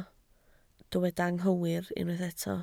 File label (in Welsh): "anghywir", Welsh